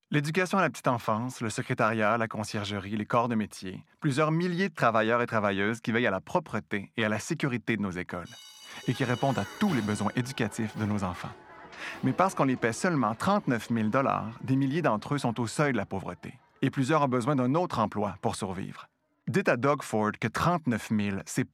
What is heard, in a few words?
radio ad french; cupe-osbcu